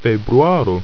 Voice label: "februaro", ido